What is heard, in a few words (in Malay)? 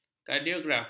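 "kardiograf", Malay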